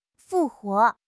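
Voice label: "复活", Chinese; HSK6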